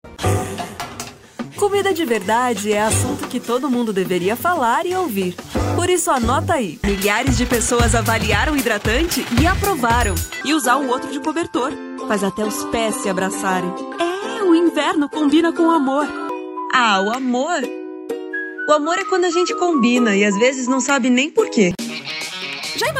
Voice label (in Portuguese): voz Jovem